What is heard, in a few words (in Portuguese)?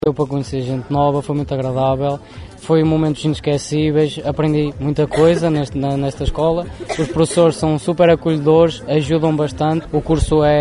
vox pop; Escola Profissional Jean Piaget de Macedo de Cavaleiros